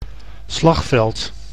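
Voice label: "slagveld", Dutch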